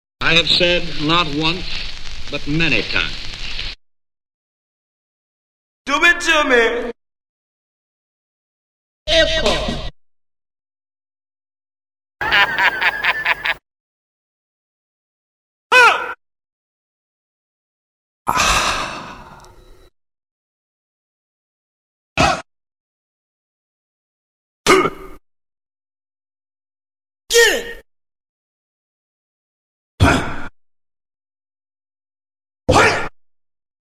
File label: Male Spoken Vox